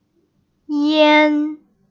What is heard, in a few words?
"ian", Pinyin Finals